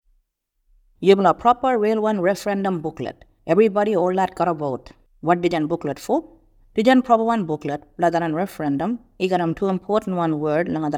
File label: Kimberley Kriol; Referendum Booklet